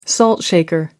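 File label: "relay race", British English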